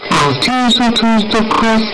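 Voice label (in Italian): "ha chiuso", Italian; ha chiuso tutto qui?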